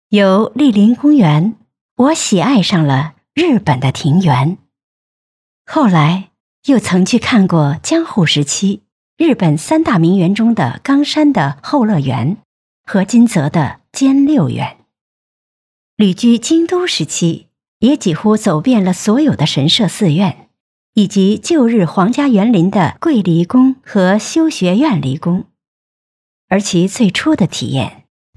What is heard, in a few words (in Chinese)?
温柔知性讲诉